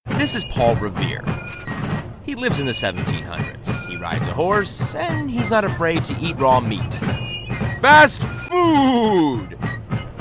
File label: radio